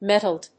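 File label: "metaled", English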